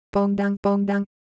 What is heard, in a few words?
Korean Sound; ideophones